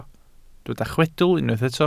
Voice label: "chwedl", Welsh

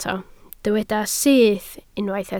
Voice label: "syth", Welsh

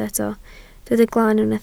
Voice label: "glan", Welsh

